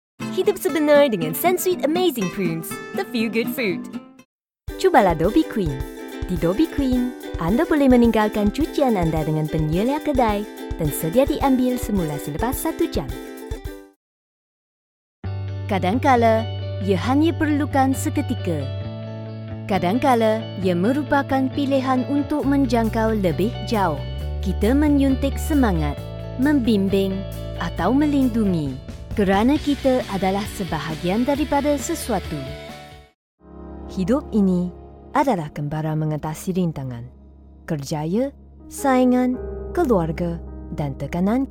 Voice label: malay commercial; Chinesisch (Mandarin); Schweiz; malaiische; professionelle Synchronsprecherin